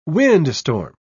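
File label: "windstorm", English word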